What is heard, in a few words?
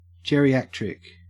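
"geriatric", Australian English; pronunciations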